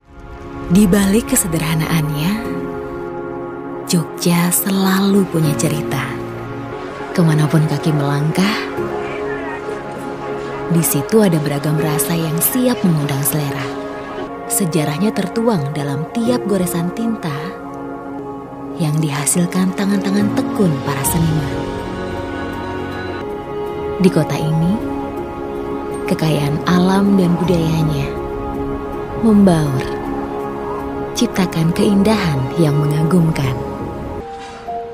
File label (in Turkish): Endonezce Erkek; seslendirme